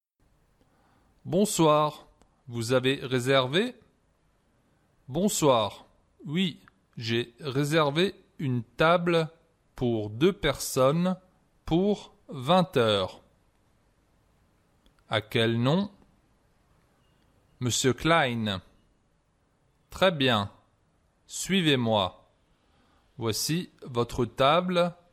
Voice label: Conversation, French